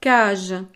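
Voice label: "cage", French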